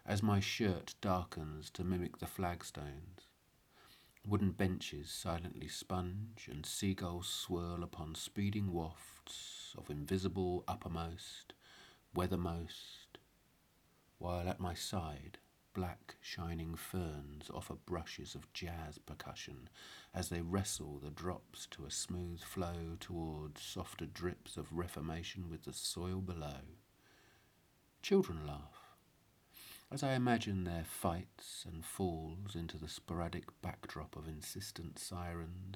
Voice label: rain, spoken word; Tiredness